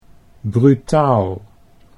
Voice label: "brutaal", Dutch

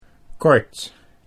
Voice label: "kort", Dutch

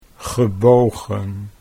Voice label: "gebogen", Dutch

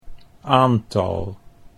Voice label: "aantal", Dutch

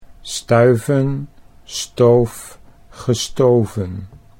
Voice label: "stuiven stoof gestoven", Dutch

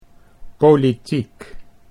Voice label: "politiek", Dutch

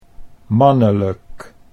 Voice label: "mannelijk", Dutch

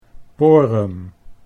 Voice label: "porum", Dutch

